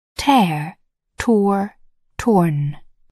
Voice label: "tear", English